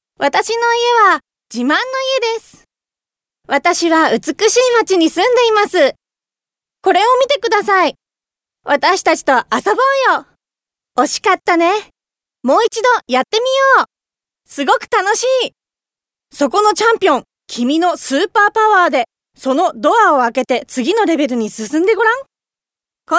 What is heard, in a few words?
JP fem children